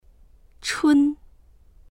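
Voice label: "chun1", Chinese; 春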